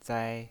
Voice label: "zai1", pinyin

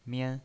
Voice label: "mian1", pinyin

